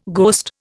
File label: "ghost", Indian